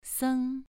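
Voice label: "seng" Mandarin Chinese, second tone, pronunciation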